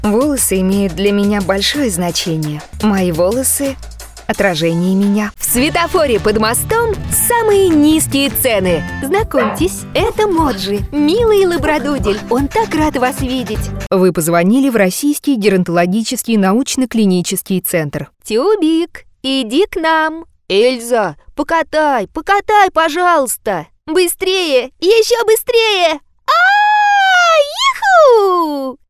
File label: ru